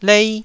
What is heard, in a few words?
"lei1", taiwanese syllables